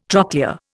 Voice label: "trochlea", Indian